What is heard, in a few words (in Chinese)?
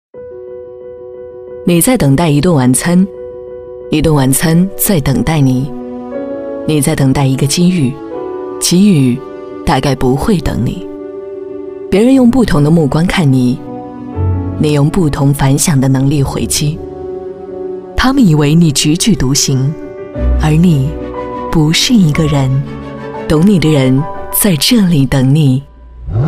女 品质感个性; 奔驰